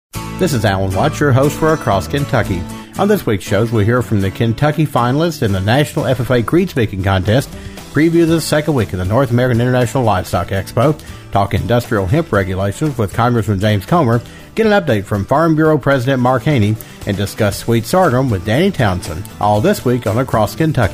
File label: promo; Across Kentucky